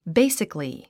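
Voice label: "basically", English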